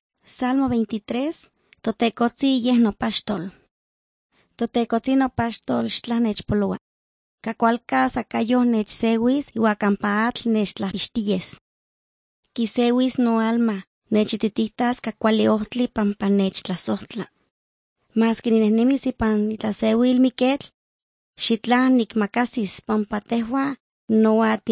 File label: words of life nahuatl guerrero tlapa, drama